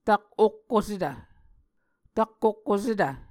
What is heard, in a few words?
"tak okkosetv", Muscogee